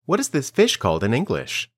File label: Dictation